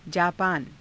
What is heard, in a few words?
"jaapaana", Hindi